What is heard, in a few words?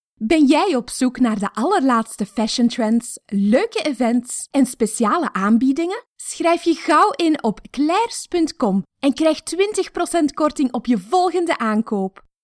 flemish female young; dictor; dictor-sounds